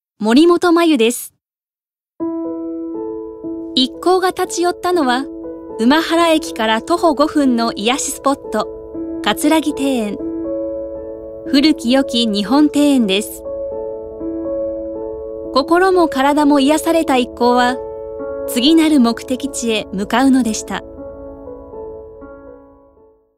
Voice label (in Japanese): ノーマル